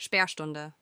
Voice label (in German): Sperrstunde Lock Chime, tesla